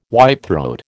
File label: "whitethroat", American